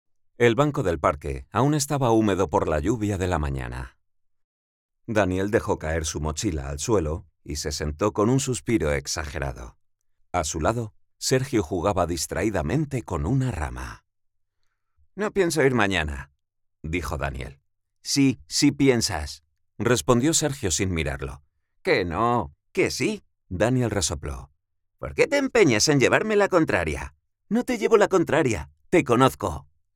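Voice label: Spanish male Characters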